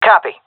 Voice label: affirmation, seal fem; vo; Counter Strike Model Pack; CS:GO